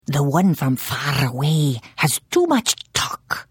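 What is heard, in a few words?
Hello, Khajiit female; The Elder Scrolls III - Morrowind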